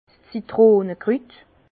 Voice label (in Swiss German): "zitronekrut", Bas Rhin; vin; critronnelle